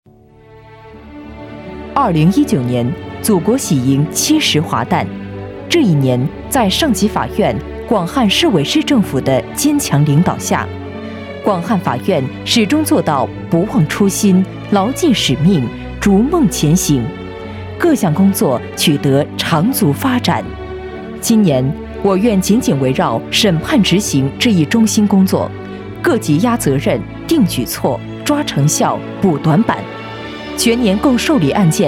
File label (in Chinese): 专题汇报政府女